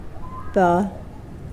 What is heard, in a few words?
Mokilese arm